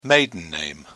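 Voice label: "maiden name", British English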